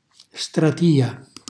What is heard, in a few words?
"stratia", Calabrese; cucina calabrese